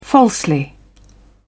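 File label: "falsely", English, British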